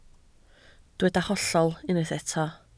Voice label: "hollol", Welsh